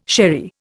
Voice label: "sherry", Indian